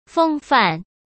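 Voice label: "风范", Mandarin Chinese; chinese-flashcards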